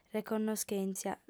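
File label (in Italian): "reconnoschèntzia", lingua sarda, fem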